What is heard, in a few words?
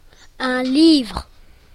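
"book", French; classroom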